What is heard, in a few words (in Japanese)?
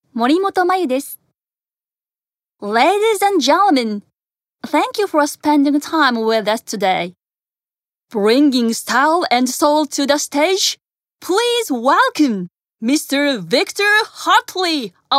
英語